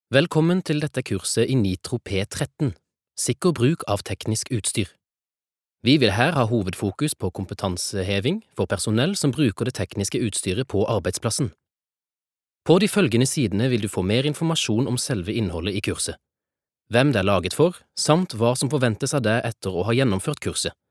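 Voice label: Norwegian e-learning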